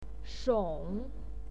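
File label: "shong3", Mandarin Chinese pinyin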